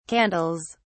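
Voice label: "candles", British English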